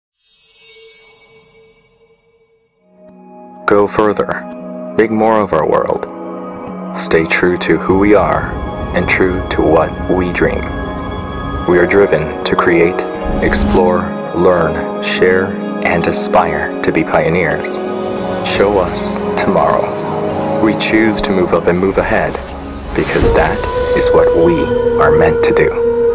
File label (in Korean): 영어 남 낮은톤; HCD 16 GENESIS VISION G CONCEPT CAR DESIGN FLIM